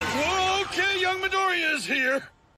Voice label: Surprised; All Might

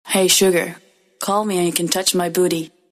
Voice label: Sweet Girl Voice

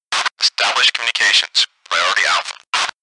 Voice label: Radio VOICE; Quake2

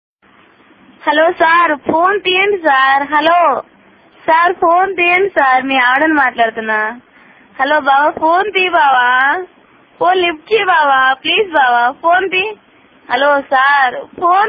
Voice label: Female - Hello Sir

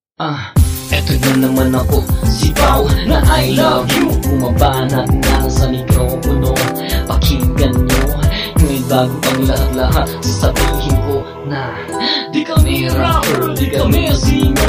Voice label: Rap

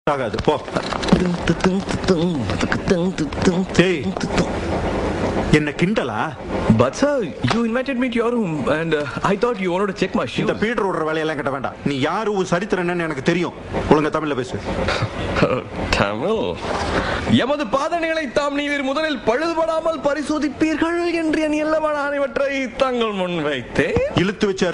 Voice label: Tamil Dialogs; Ayan

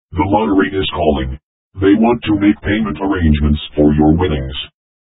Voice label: Robotic Voice

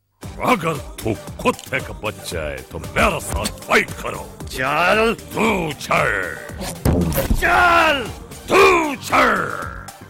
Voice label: Dialog

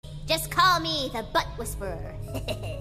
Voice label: Butt Whisper

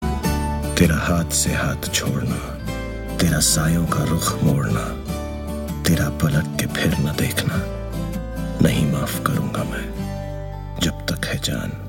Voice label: Poem Sad

